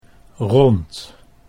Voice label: "rond", Dutch